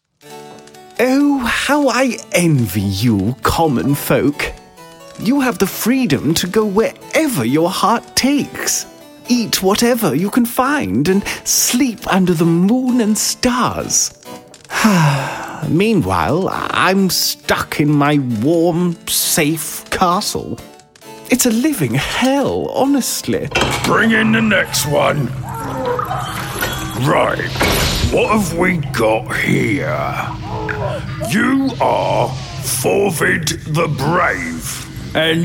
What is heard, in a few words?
animation, voiceover